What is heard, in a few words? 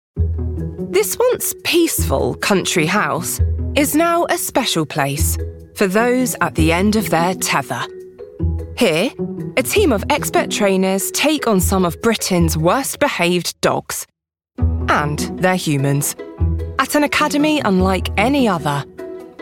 Documentary